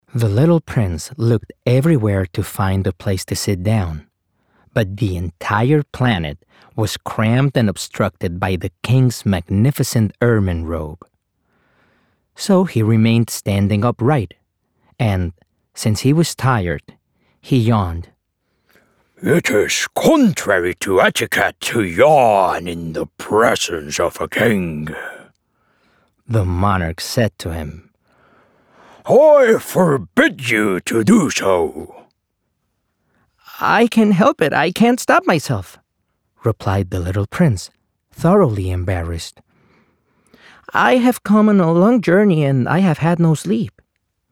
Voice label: Audiobook, Conversational, Bright, Upbeat, Natural, English; Audiobook Reel; English, Spanish